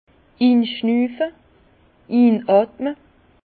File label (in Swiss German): "inschnufe", Bas Rhin; vin; sentir humer